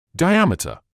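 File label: "diameter", British English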